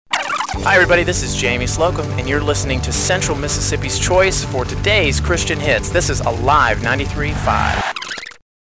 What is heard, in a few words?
Artist Liner